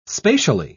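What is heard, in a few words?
"spatially", British English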